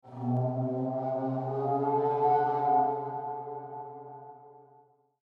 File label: ghost voice